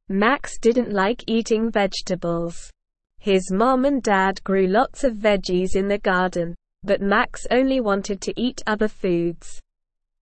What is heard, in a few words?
ESL Short Stories for Kids Beginner SLOW Reading; The Voyage to Vegetable Land